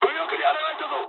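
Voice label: right wing mood high, speech japanese; War Thunder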